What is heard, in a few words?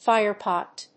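"fire pot", English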